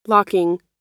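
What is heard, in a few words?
"locking", American English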